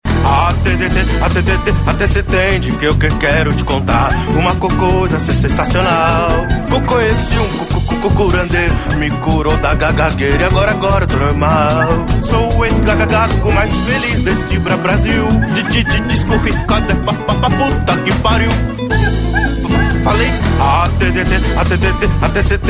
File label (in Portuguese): gago; atende o gaguinho